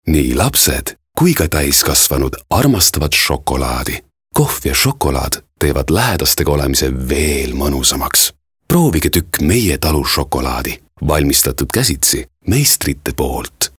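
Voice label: Estonian male Sweet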